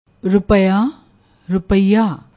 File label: "rupaya", Punjabi